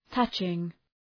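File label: "touching", English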